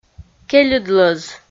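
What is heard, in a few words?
"кельыдлӧз", Komi, female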